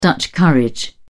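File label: "dutch courage", British English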